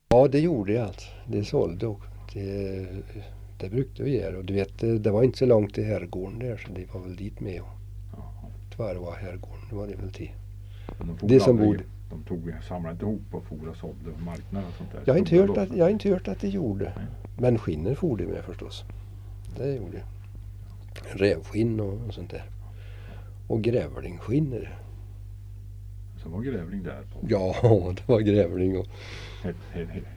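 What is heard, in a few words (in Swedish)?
Östmark Värmland, dialekter; Svenska sagor; Folkminnen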